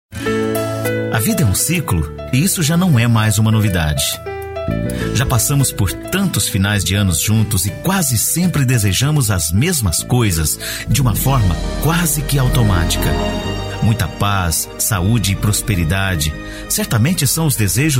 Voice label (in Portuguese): spot mensagem de ano novo, locutor